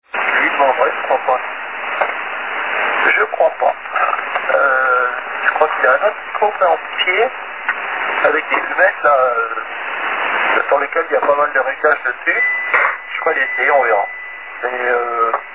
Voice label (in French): test mic 50pct sans comp